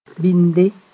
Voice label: "bɩnde", Mooré